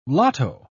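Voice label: "lotto", British English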